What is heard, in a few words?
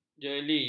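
"jeli", Malay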